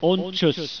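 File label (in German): "tschuess", German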